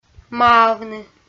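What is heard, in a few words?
"маавны", Komi, female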